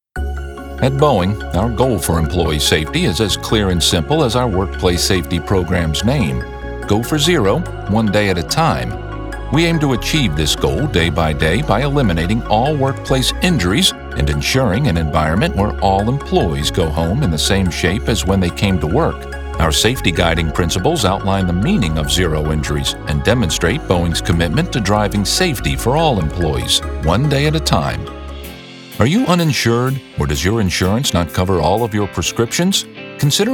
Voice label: Corporate Narrations